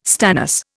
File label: "stannous", Indian